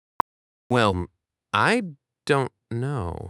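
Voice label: speaker male fast mid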